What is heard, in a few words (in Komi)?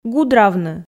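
"гудравны", Komi, female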